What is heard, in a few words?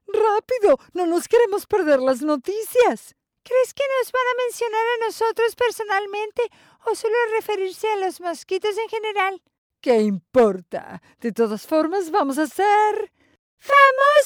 spanish latin american animation